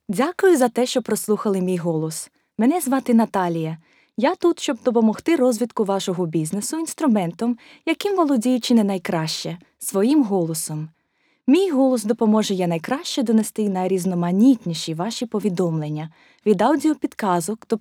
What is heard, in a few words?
female ukrainian neutral reel